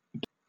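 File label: "delusions", British English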